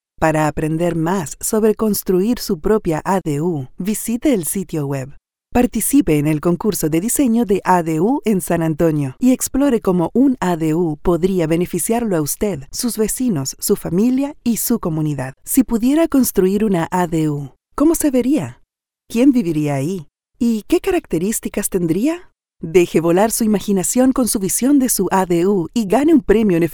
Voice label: PROMOCION GUBERNAMENTAL, Latin Spanish Neutral Female, Television Spots, Spot Ayudas Caritativas